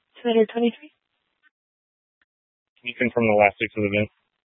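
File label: Tempe Police Department, scanner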